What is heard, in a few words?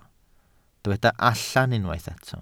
"allan", Welsh